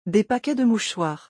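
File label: "Des paquets de mouchoirs", French; vocabulaire; le lexique des vacances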